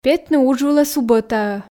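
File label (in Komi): петны удж вылӧ субӧтаӧ, Komi, female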